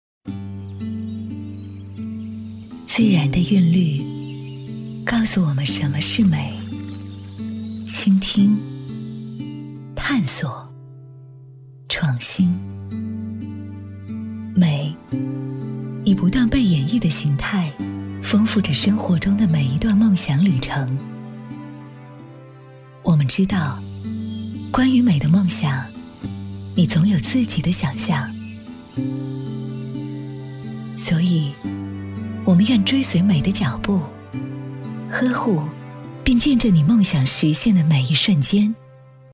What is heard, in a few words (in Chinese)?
女 宣传片 成熟温婉大气